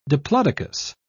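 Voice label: "diplodocus", British English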